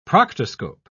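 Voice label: "proctoscope", English, British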